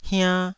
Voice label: "hiann2", taiwanese

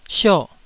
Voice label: "sio4", Taiwanese syllable

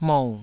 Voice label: "mou3", taiwanese syllables

